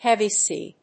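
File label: "heavy sea", English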